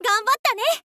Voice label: "ganbatta ne", info girl voice

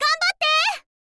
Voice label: "ganbatte", girl; voice

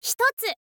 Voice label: "hitotsu", info girl voice

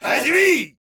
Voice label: mens hajimei, anime

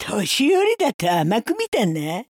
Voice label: necromancer old woman win, voice

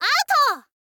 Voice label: "out", info girl voice

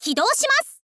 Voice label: "kidoushimasu", girl; voice

